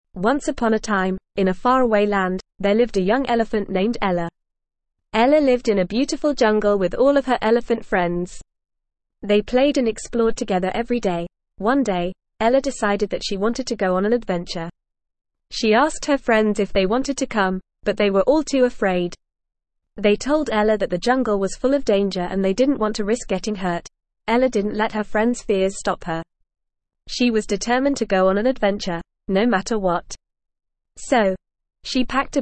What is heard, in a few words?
ESL Short Stories for Kids NORMAL reading; Ella the Young Elephant